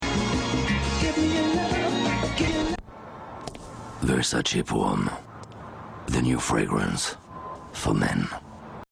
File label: spot TV; Versace For Men; en Anglais